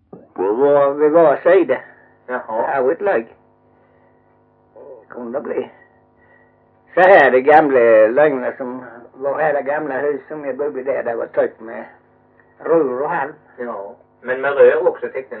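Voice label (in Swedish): Mjällby Blekinge, Svenska sagor dialekter; Folkminnen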